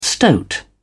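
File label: "stoat", English, British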